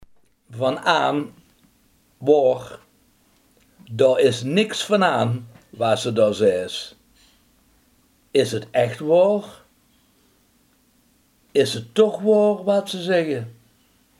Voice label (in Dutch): "waar", limburgse